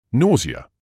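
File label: "nausea", British English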